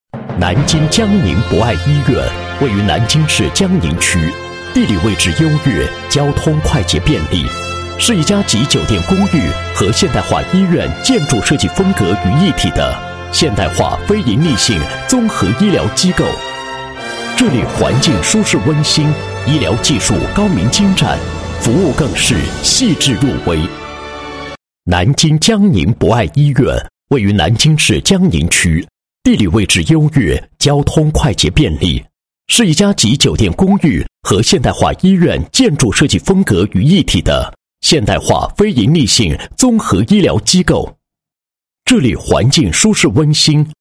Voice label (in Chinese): 男 医院专题 医院宣传; 博爱医院